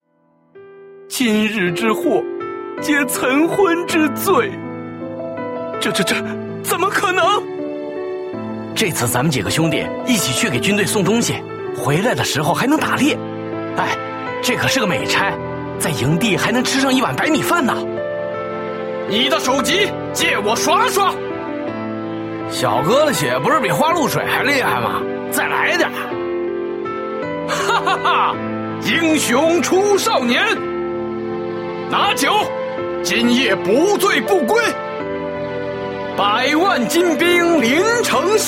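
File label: male young adult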